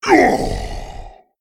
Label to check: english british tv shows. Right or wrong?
Wrong